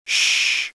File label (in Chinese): 电脑发音